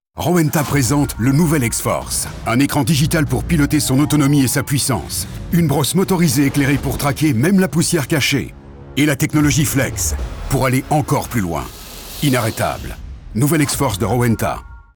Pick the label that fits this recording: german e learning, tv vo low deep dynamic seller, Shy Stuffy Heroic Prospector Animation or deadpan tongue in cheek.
tv vo low deep dynamic seller